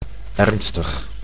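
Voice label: "ernstig", Dutch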